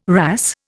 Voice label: "wrasse", Indian